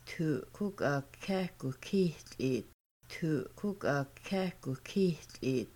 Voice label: She poured water into a cup, Kaska; Making Bannock